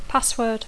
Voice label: "password", English